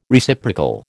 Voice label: "Reciprocal", American